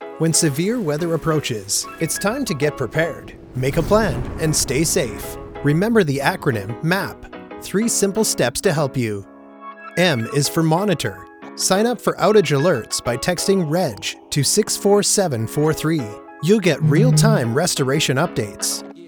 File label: Corporate Videos